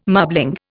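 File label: "marbling", Indian English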